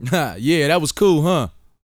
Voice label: BOAST MF; Vocal Planet; R&B Dance

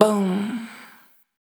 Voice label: "Boom", vocal; Words

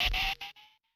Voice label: TV vo, Yamaha DTXpress-II FX

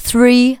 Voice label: "three", spoken; Techno Trance Essentials

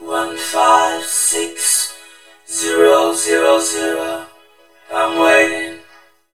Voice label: Funky Vocals, VOCODER; USB Soundscan vol.18; AKAI

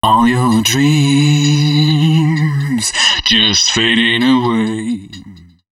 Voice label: male d# 130, short hooks, Dance Voice

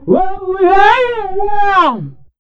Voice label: "OH YEAH", Funky Vocals, ADLIB MEN; USB Soundscan vol.18; AKAI

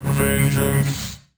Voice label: Vocals

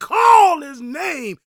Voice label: PREACHIN, Gospel PREACHER

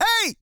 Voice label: COUNT, Vocal Planet, R&B & Dance, COUNTING; ILIO

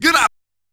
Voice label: A#3 Get up!, Yamaha PSR-E323 Sound Effect Kit

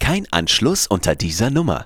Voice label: german, spoken vocals; Techno Trance Essentials

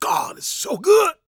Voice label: PREACHIN, Gospel, PREACHER

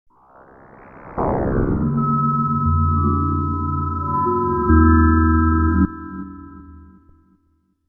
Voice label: Vocal Transformation; Bizarre Planet

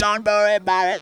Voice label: "don't worry", Funky Vocals; USB Soundscan vol.18; AKAI; TB DONT

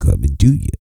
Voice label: E SPEECH, Vocal Planet R&B Dance

